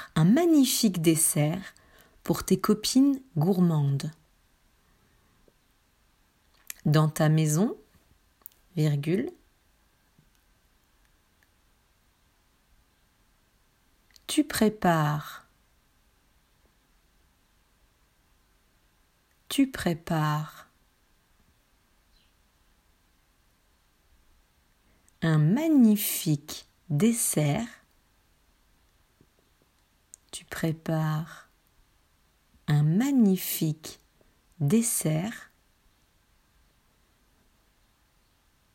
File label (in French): Dictée phrases